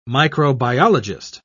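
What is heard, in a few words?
"microbiologist", British English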